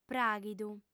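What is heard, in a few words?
"pràghidu", Sardinian, female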